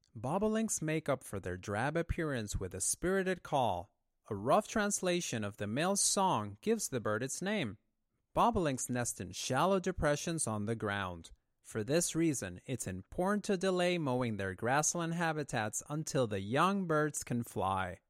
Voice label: Voiceover Bobolinks; Scenic Hudson